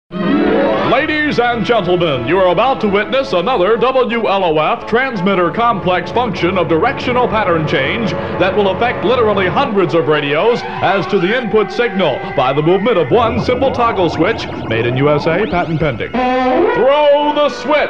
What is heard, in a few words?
Directional pattern change; WLOF